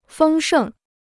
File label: "丰盛", Chinese